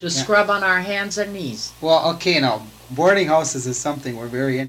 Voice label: Personal history with 70 year old male and 77 year old female Utica Minnesota, american english dialect